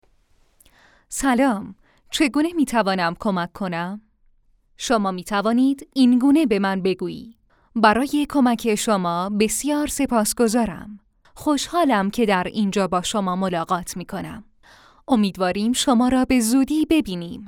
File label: Farsi, Female, Young, Adult, Farsi (Persian)